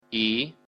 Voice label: belarusian alphabet i